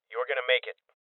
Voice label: Voices Heal Male Young; rebel cops 2019 gamerip